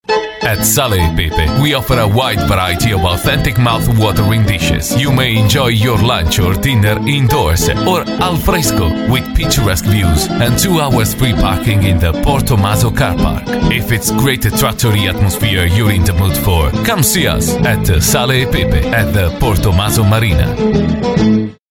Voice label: Commercial Italian English, Italian Male